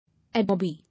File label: "Adobe", English